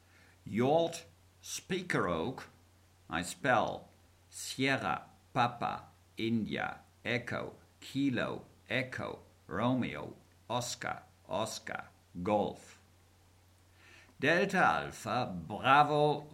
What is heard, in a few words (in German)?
Seefunktext